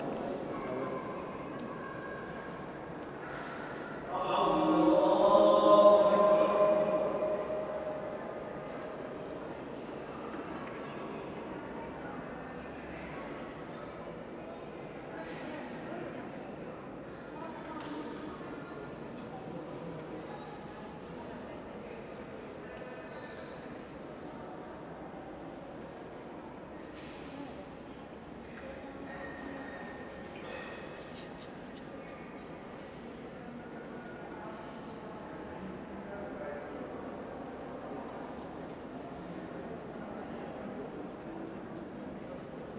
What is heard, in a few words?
Hagia Sofia Indoors Prayer; Istanbul; field-recordings; hagia-sophia